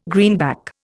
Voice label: "greenback", Indian